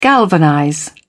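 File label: "galvanize", British English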